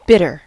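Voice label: "bitter", American English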